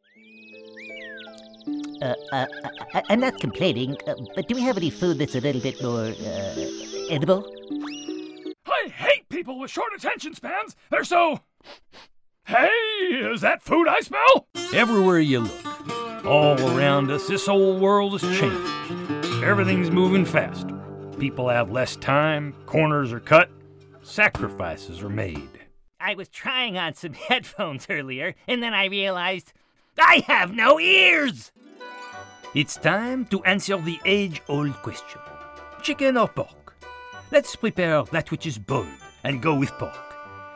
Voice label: Character Voices